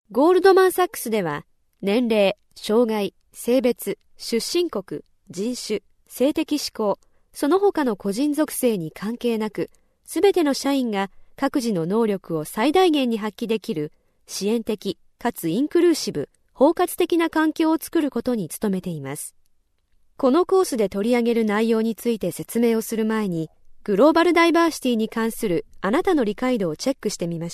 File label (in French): japonais femme